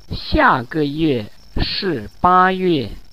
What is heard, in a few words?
Mandarin Conversation